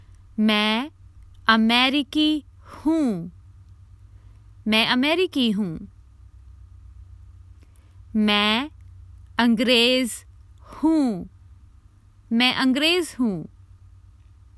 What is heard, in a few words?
Common Hindi Phrases for travel